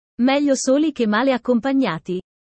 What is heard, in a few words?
italian sayings about life